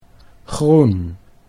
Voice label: "groen", Dutch

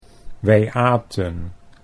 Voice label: wij aten, Dutch

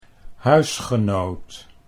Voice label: "huisgenoot", Dutch

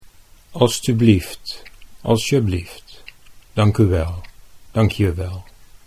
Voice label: "alstublieft", Dutch

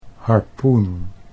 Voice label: "harpoen", Dutch

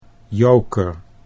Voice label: "joker", Dutch

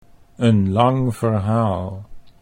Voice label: een lang verhaal, Dutch

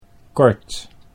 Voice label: "kort", Dutch

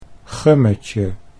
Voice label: "gummetje", Dutch

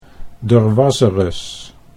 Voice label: "durwasurus", Dutch

